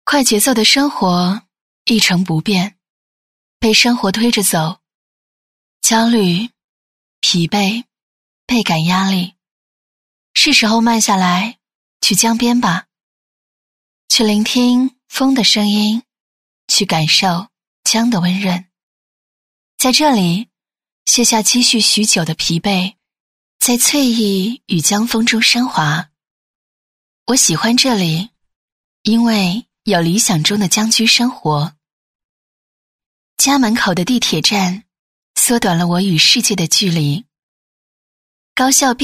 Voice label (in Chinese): 女-房地产宣传-年轻文艺; 开启生活的第N种方式; 年轻舒缓